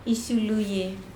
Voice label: "isluye", Andamanese; rotten